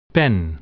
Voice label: "pen", dutch

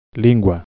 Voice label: language, galician

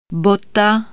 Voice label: "shoe", czech

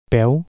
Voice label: "foot", catalan

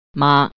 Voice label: mother, cantonese

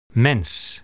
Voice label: human being, dutch

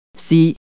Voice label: silk, cantonese

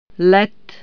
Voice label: "flight", czech

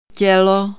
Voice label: "body", czech

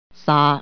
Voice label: sand, cantonese

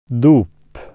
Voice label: "christening", swedish

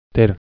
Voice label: to have, galician

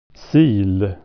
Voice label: "strainer", swedish

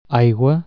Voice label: "water", catalan

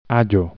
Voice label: garlic, galician

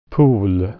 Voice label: "pole", swedish

